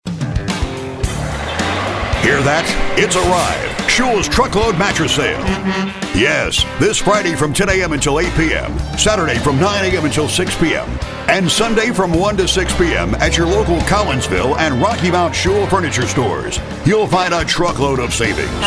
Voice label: hard sell

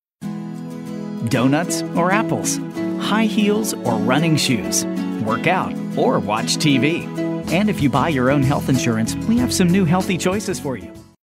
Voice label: friendly

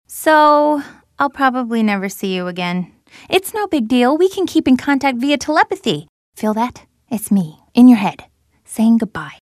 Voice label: humorous

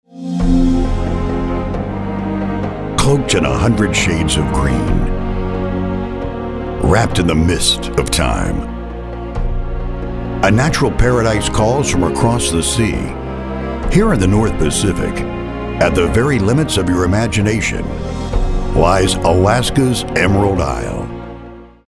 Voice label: smooth mellow

